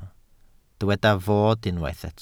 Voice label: "fod", Welsh